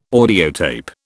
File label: "audiotape", British